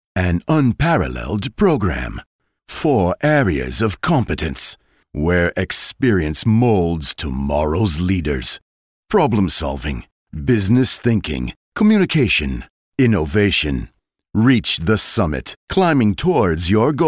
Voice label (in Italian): inglese usa